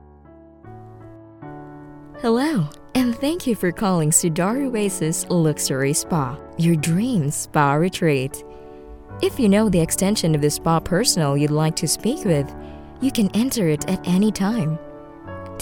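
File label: IVR english, US american english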